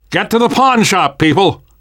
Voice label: world hospital, namvet, survivor voice